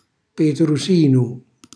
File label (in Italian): "petrusinu", Calabrese; cucina calabrese; Glossario